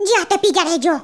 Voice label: "I'll get you", Spanish